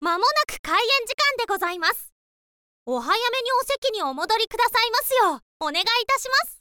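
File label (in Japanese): mamonaku kaien, Japanese, girl; voice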